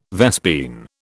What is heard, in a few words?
"vespine", British